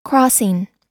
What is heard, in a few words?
"crossing", us